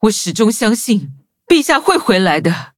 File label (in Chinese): upgrade, zh-hant; cookie run